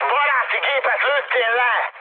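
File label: Hungarian player killed ally mood high, speech; War Thunder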